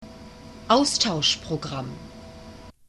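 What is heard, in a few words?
"austauschprogramm", German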